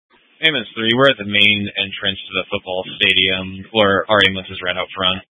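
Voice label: Fire Dispatch, scanner audio recording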